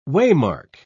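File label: "waymark", British English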